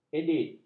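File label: "edit", Malay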